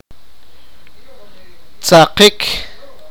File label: fall, sipakapense